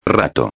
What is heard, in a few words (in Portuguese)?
"rato", espanhol